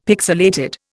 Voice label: "pixilated", Indian; Dictionary